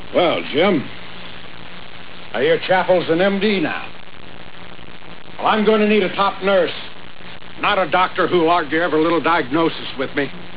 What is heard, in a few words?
complain